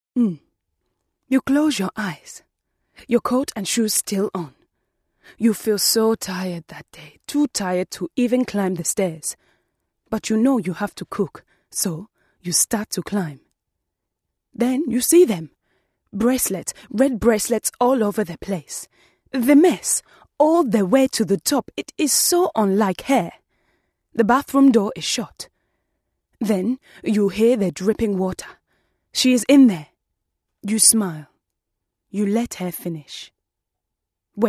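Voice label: Drama African; home studios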